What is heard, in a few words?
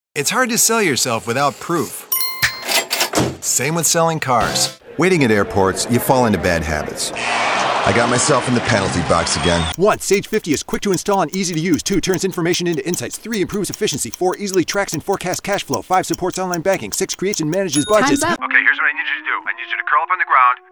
commercial